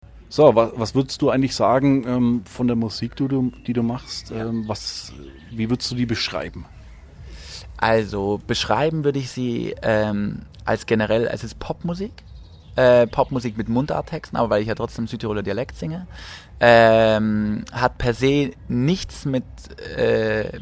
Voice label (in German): Interview Musikrichtung